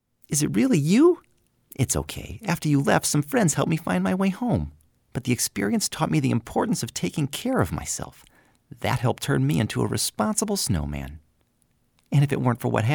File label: Cartoon